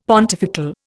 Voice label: "pontifical", Indian; Dictionary